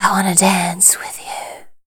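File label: vocal female, Dance Vocals, spoken words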